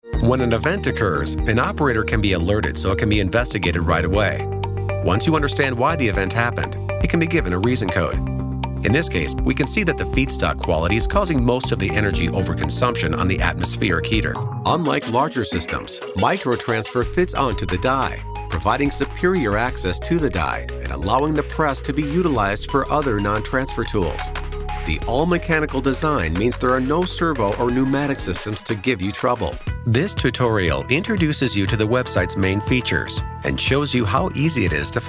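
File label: Industrial and Elearning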